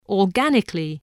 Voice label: "organically", English voice

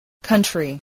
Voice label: "country", voice spelling

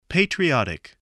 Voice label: "patriotic", English, voice

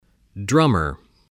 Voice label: "drummer", English

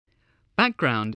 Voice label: "background", English

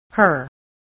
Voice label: "her", English, spelling

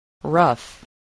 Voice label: "rough", English spelling

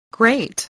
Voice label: "great", spelling

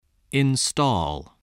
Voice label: "install", voice spelling

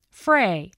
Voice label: "fray", English voice, spelling

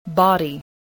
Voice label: "body", spelling

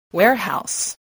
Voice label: "warehouse", spelling

